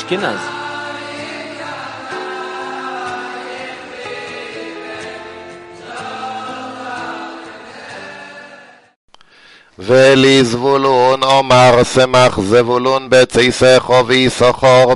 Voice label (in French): Paracha Vezot Haberakha 4eme montee Ashkenaze; devarim deuteronome